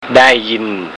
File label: "dai yin", Thai